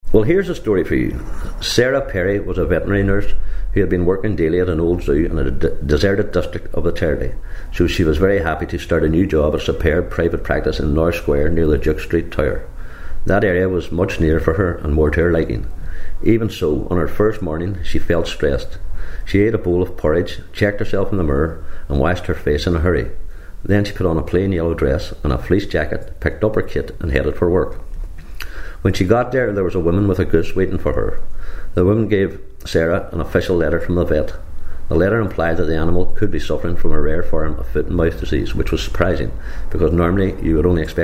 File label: passage, dialects ni